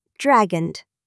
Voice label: dragon; en